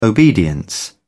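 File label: "obedience", British English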